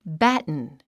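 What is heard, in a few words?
"batten", English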